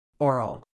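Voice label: "oral", medical English, British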